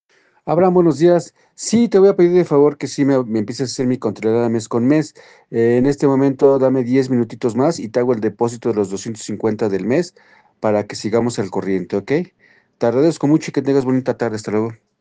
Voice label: whatsapp ptt